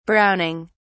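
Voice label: "browning", British English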